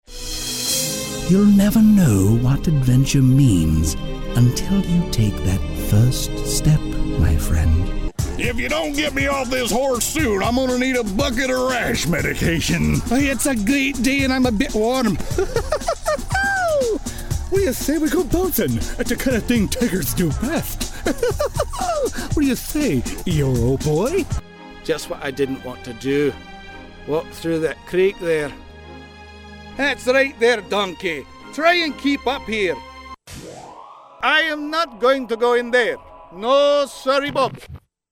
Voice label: Cartoon